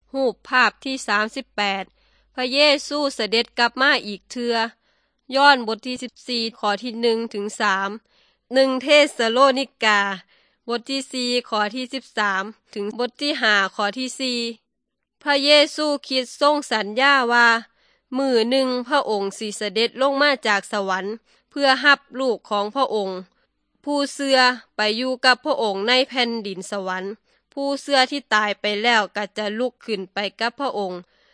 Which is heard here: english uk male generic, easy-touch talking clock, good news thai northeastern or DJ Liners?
good news thai northeastern